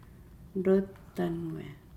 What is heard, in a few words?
"happy lotanuaa", Nukuoro